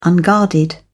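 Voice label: "unguarded", British English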